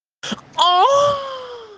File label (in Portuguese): espanhol